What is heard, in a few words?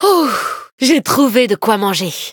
levelup, fr; cookie run